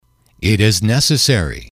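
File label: "it is necessary", English, male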